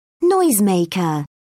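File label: "noisemaker", British English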